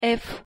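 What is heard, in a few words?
pronunciation ф, Russian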